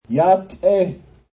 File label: "Hello", Navaho; A Navaho says; Talking Sound Effects